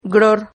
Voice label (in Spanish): "gror", Silabas